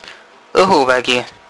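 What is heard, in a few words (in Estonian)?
"õhuvägi" Estonian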